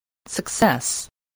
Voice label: "success", voice spelling